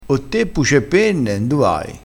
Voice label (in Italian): "puce e penne", vocabolario dialettale